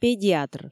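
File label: "педиатр", Komi, female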